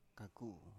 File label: "kaku", Biak